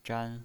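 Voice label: "zhan" Mandarin Chinese pinyin voice, first tone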